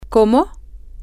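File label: how, spanish, female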